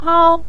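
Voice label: "hao", Mandarin Chinese, neutral tone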